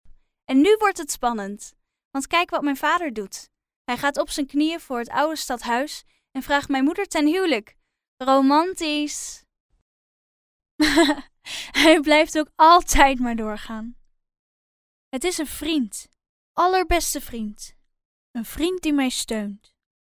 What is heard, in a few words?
dutch girl voiceover locutora infantil holanda; locutora infantil de Holanda